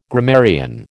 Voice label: "grammarian", American